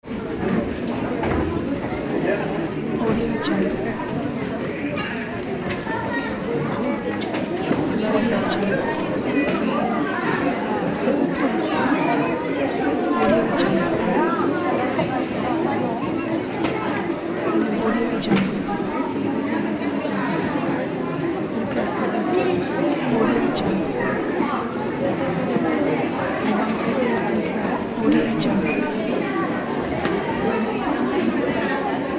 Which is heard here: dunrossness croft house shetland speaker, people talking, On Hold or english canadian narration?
people talking